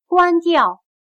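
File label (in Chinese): "关掉" Mandarin Chinese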